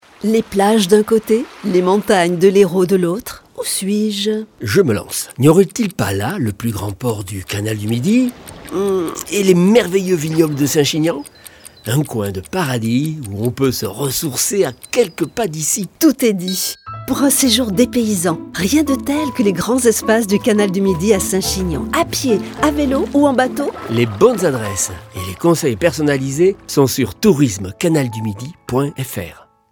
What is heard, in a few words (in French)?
spot radio; canal du midi